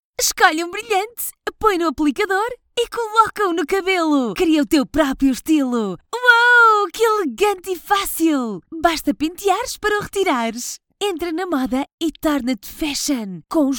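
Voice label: Portuguese, female, commercial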